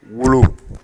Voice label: "wolo", Bambara; actions

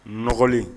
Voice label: "nɔgɔlen", Bambara

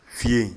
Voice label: fiyen, Bambara

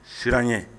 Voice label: siranya, Bambara

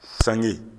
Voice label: "sange", Bambara; objets

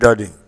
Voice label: "dade", Bambara; actions

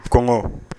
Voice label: "kɔngɔ", Bambara

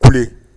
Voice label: "kule", Bambara; actions

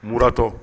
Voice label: "muratɔ", Bambara

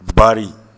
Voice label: "baari", Bambara; actions

